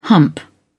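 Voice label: "hump", English, British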